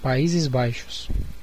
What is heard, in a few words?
"netherland", pt_BR; gcompris; geography